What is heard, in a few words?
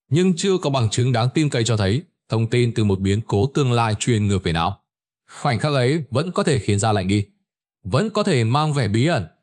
narration; Vi Sao Nao Bo Danh Thuc Ban Truoc Nguy Hiem; StickerMan